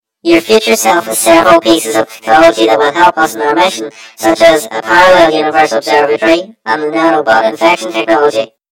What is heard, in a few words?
story voiceover; yumpod